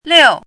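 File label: "liu4", Mandarin Chinese syllable, pinyin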